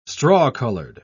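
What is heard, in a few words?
"straw-coloured", English, British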